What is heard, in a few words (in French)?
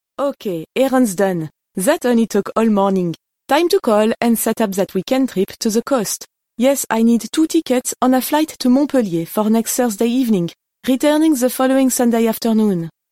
Anglais accent Français